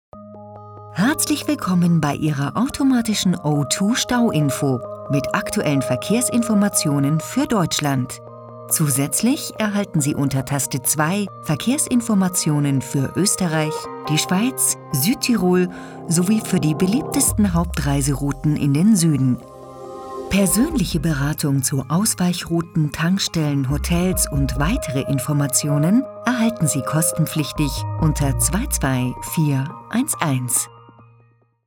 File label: IVR